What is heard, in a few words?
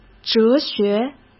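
"哲学", Mandarin Chinese; chinese flashcards; hsk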